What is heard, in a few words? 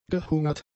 "gehungert", German; adjectives